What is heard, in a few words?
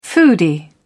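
"foodie", British English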